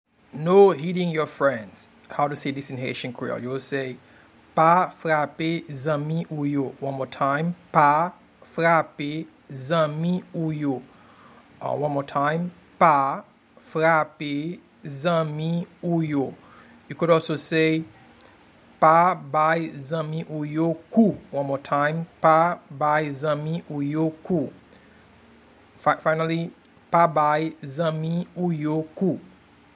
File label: no hitting your friends in Haitian Creole Pa frape zanmi ou yo pronunciation